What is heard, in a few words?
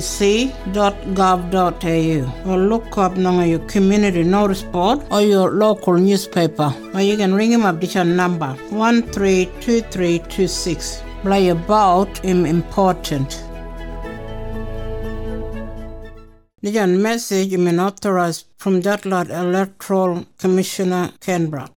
Kriol - Remote voting; West Side Kriol